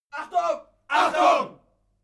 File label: military shout german attention